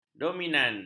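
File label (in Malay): "dominan", Malay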